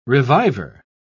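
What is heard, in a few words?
"reviver", British English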